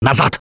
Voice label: back up quiet, russian; Gambler

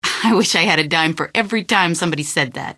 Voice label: answer, female; Garry's Mod; hl2

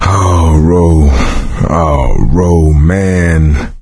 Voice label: survivor mourn, voice; Left 4 Dead 2; coach

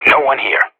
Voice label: radio bot clear, professional; player vo

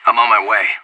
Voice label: on my way, player vo anarchist; Counter-Strike Global Offensive

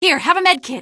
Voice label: health, female; npc; Garry's Mod; hl2

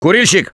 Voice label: Warn Smoker, russian; Gambler

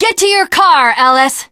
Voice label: teengirl, voice; bridge run; Left 4 Dead 2; l4d1 finale

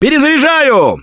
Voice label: reload intense, russian; Gambler

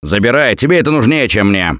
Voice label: Alert Give Item Stop, russian; Gambler

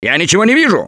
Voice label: boomer reaction, russian; Gambler

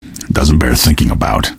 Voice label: answer, male; Garry's Mod; hl2

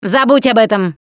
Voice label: taunt, russian, Survivor Voice Producer

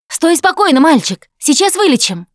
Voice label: Heal Other Combat, russian; Producer

